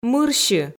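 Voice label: "мырсьы", Komi, female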